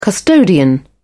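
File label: "custodian", British English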